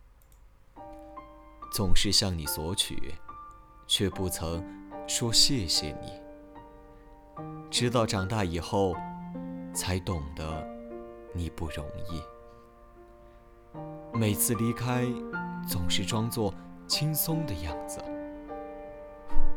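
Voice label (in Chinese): 稿件父亲录音, 男配音 青年 普通话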